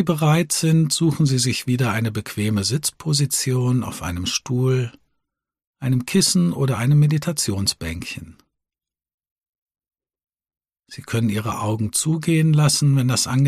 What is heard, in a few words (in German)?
Sitzmeditation Geraeusche Gedanken; Mindful2Work